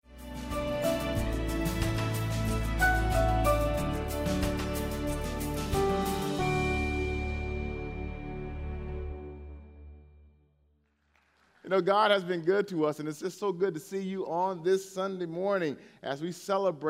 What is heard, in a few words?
English Sermon